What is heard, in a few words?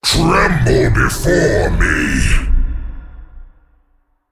Male Demon Bark; Diablo